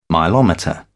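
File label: "milometer", British English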